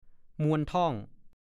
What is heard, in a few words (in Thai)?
"มวนท่อง", ภาษาโคราช; อาการคลื่นเหียน